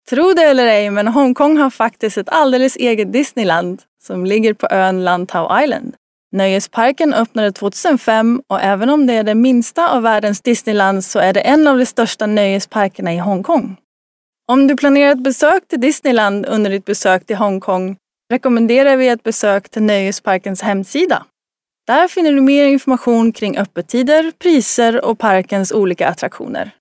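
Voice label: Female Swedish Other Projects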